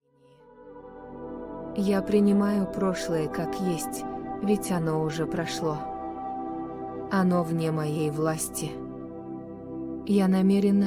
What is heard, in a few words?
Guided Relaxation and Affirmations